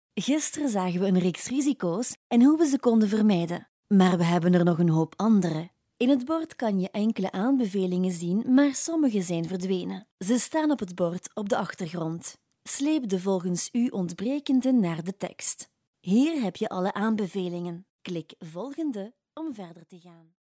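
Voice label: Flemish, female, elearning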